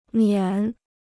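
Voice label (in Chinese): "免", Chinese; miǎn; excuser; dispenser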